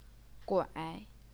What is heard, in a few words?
"guai3", chinese pinyin